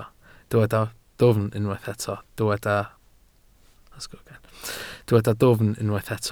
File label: "dwfn", Welsh